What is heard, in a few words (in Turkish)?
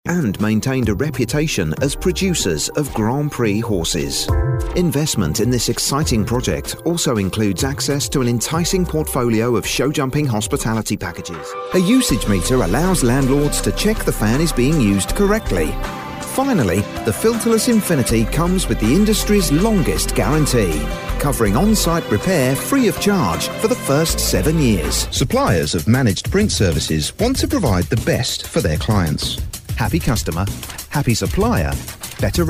Ingiliz Ingilizcesi Erkek; seslendirme